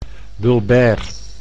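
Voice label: "bulbair", Dutch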